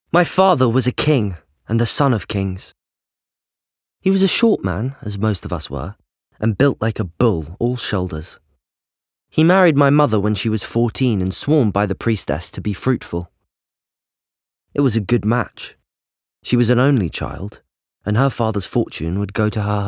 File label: Audiobook